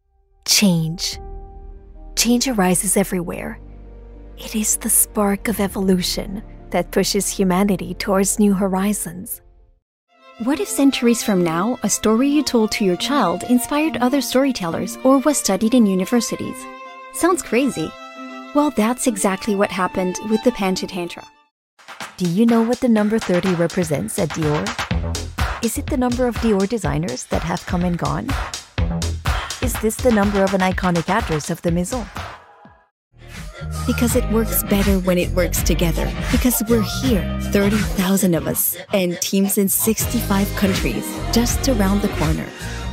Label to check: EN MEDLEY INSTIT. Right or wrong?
Right